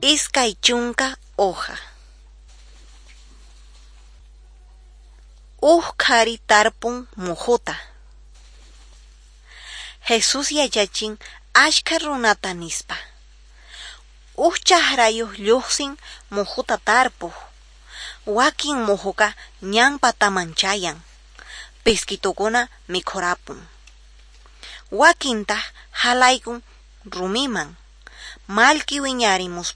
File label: quechua norte de bolivia apolo